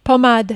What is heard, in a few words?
"pommade", French